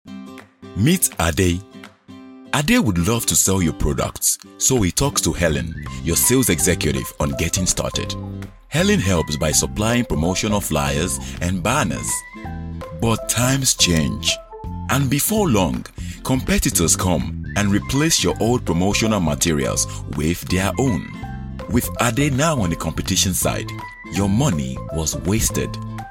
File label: ENG AF, English African, Male